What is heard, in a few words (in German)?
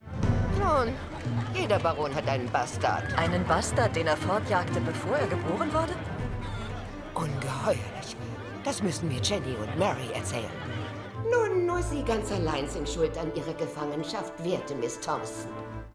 Synchron, frauen; Bridgerton